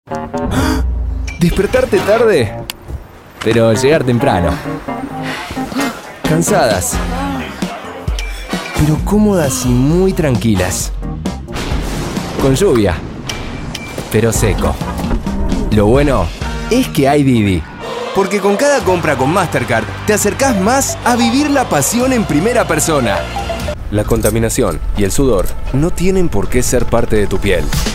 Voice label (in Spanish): Comercial ARG y URU